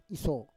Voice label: "iso", Roon